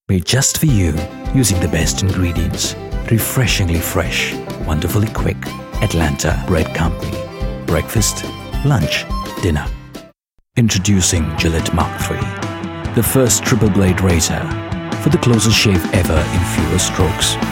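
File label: commercials english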